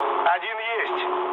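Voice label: player killed enemy, mood low, Russian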